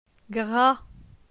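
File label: "Gra", French; phonetique; la syllabe a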